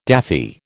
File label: "daffy", American